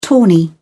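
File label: "tawny", British English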